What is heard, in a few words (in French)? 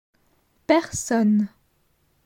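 "Personne", French; grammaire; la negation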